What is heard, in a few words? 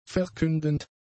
"verkündend", German; adjectives